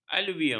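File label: "aluvium", Malay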